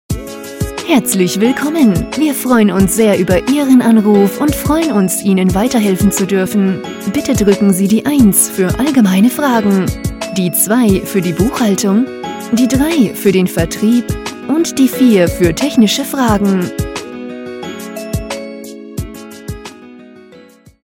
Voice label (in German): deutsch